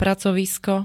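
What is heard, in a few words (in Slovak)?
"pracovisko", Slovak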